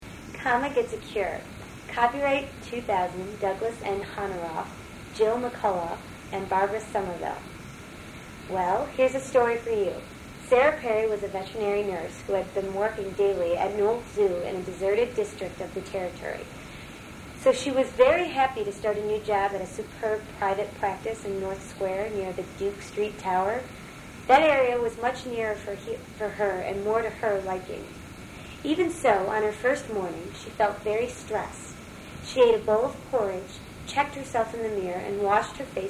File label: chicago, dialects; speech